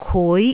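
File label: "kui2", Cantonese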